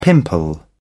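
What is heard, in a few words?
"pimple", British English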